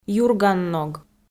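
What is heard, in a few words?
"юрганног", Komi, female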